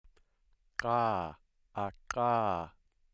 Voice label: alveolar click